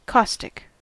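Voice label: "caustic", US English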